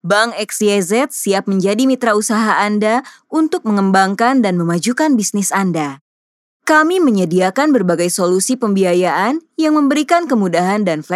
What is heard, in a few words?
Formal Indonesia